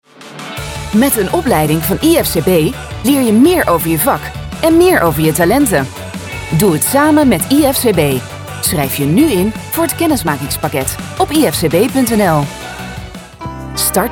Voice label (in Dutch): commercieel